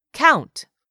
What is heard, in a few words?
"COUNT", English; 3000 words